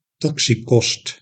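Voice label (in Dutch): "taxikost", Dutch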